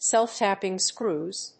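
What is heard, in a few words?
"self-tapping screws", English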